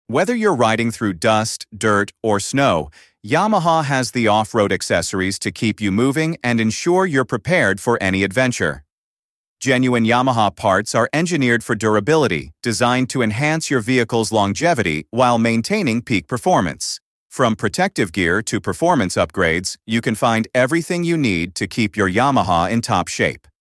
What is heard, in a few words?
Male